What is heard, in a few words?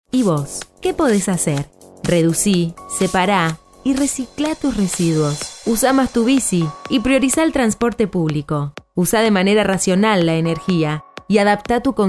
spanish soft sell